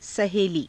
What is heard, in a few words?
"sahelii", Hindi; [女]女同士の友人; सहेली